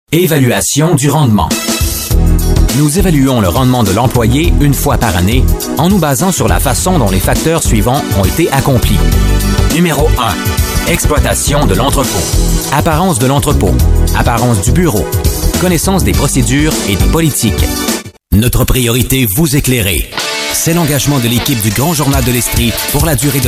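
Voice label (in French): French canadian, male, commercial; voice demos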